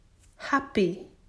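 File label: "Happy", English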